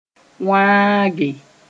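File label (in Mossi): "wãage", Mooré; break one thing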